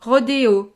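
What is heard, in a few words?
"rodeo", French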